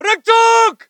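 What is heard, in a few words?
voice german infantry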